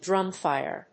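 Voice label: "drumfire", English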